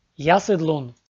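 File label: "ясыдлун", Komi, male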